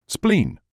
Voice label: "spleen", English